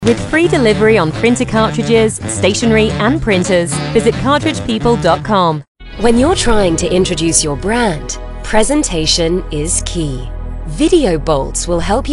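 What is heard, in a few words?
English British, seslendirme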